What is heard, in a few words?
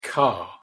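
"car" pronunciation English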